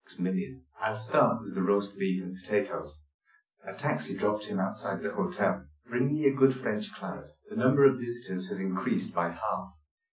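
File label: male speech; SiSEC10; underdetermined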